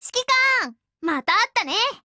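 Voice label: HELLO, Japanese; GF Alarm; F1 Mod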